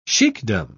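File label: "sheikdom", British English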